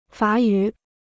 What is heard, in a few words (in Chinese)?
"法语", Chinese